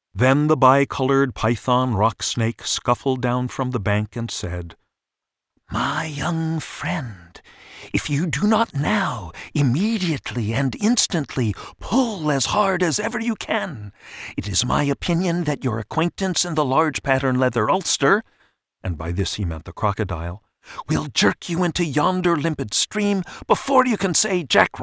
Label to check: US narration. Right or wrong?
Right